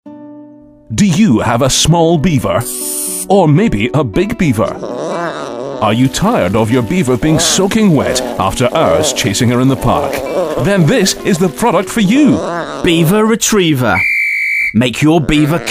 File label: Spoof Ad; Beaver Retriever